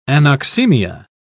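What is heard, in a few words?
"anoxemia", British English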